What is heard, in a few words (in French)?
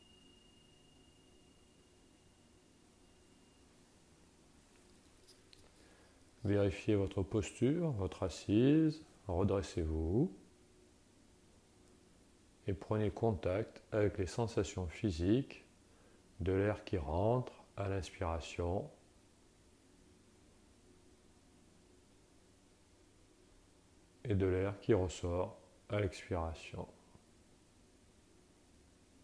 Meditation express